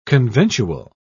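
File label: "conventual", British English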